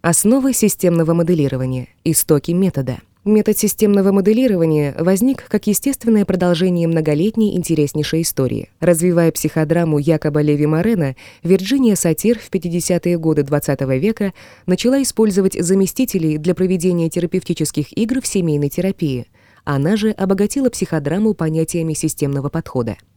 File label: povest rus